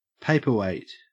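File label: "paperweight", Australian English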